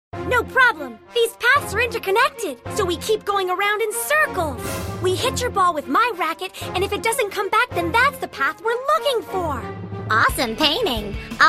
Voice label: animation